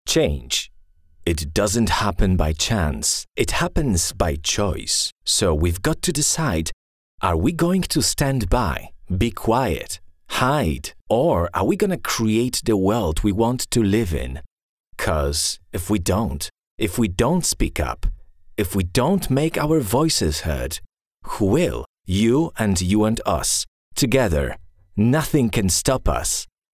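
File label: Polish English, male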